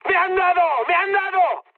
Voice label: aircraft destroyed mood high; War Thunder

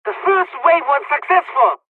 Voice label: dialogs polish; hon jpn second wave; War Thunder

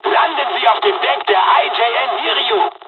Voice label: landing, dialogs german; midway jpn; War Thunder

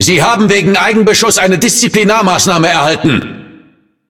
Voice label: Speech Friendly Fire Punishment, DE; World Of Warships

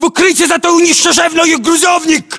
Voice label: order cover truck destroyed, ru; localized english

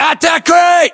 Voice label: landmark near crate, US; localized english; battlechatter

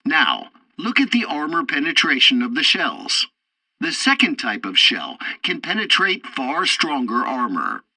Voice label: tutorial tank arcade fourth target ammo, english; War Thunder

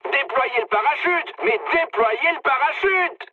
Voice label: bailout mood med, speech fr; War Thunder

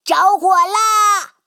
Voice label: Speech Alarms Fire Alarm; World Of Warships; NatuEvent

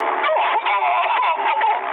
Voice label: ru damaged pilot; War Thunder

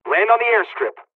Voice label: dialogs polish; hydroplane land; War Thunder

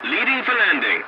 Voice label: voice message landing, english; War Thunder

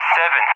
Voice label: "7", ENG; Digits; Allied Flight; DCS

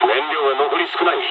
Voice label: dialogs japanese; War Thunder

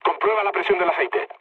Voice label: damaged oil, mood low, speech; War Thunder

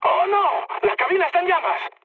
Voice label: on fire, mood med, speech; War Thunder

